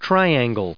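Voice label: "triangle", English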